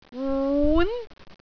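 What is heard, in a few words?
"wun2", Cantonese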